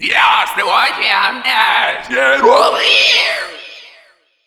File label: Female Taunt; Warframe; Female Grineer